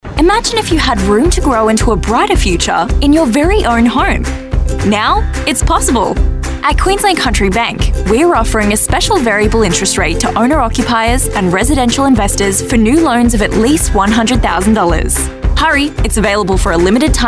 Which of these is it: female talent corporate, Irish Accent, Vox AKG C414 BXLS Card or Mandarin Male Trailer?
female talent corporate